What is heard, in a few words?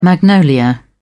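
"magnolia", British English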